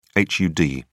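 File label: "hud", English, uk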